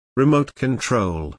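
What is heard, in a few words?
"remote control", British; Technology; Công nghệ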